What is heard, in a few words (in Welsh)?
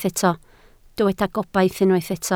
"gobaith", Welsh